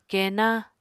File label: "kenna", Apatani